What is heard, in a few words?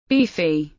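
"Beefy", English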